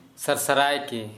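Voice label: Kera Mundari, male, fast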